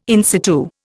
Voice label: "in situ", Indian